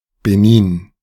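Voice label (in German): "Benin", German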